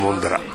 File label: "mondara", minamiyamagata